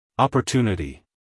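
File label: "opportunity", American English male; everyone-can-use-english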